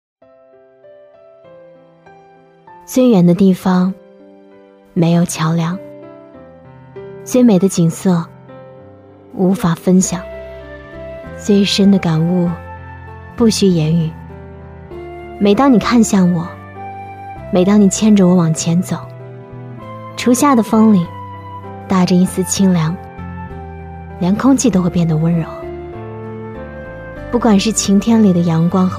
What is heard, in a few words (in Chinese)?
微电影 清新自然; 遇见